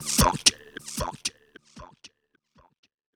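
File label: effects talk, Funky Vocals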